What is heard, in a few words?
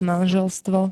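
"manželstvo", Slovak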